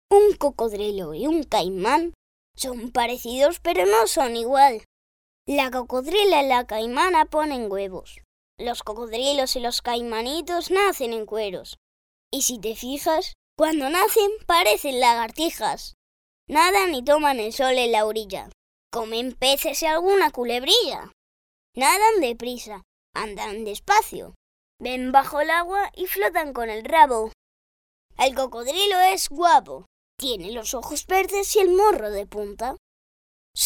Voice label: Children Voice Spanish Castilian